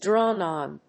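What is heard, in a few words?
"drone on", English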